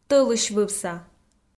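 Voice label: "тӧлысьвывса", Komi, female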